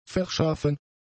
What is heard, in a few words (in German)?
"verschaffen", german; adjectives